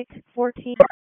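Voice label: Police Special Operations Tactical, P25; wake p25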